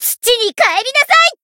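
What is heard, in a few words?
finish, Japanese; alma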